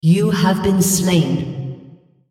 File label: female, en US